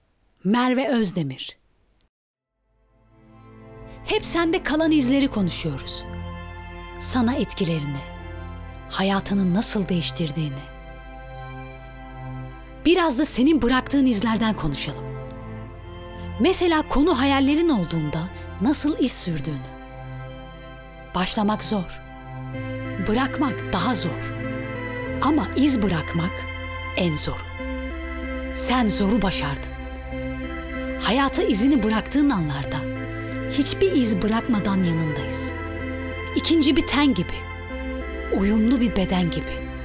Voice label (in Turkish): turkce kadin